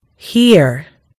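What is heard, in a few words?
"hear", American English; gcompris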